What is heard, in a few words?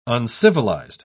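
"uncivilised", British English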